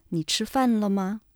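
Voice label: mandarin std, Chinese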